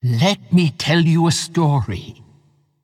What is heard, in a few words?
Female Treant Picked